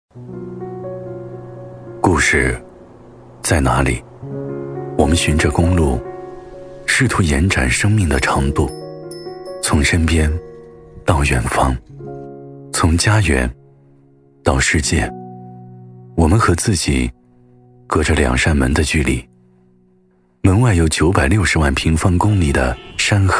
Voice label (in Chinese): 男 走心 旁白类, 抒情温暖 大气浑厚; 故事在哪里